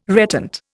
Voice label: "reptant", Indian